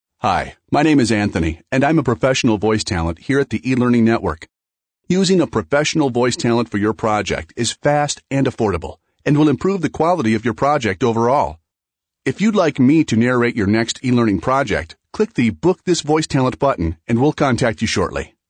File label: Voice Talent, elearning